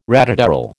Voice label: "ratatouille", American